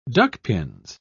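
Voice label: "duckpins", British English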